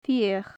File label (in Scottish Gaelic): "fitheach", gaelic; Faclan Nàdair